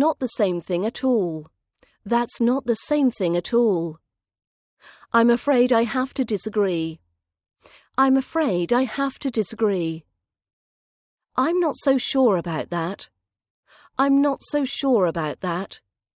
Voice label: british accent vocab practice expressing opinions disagreeing with an opinion